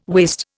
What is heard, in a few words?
"waist", Indian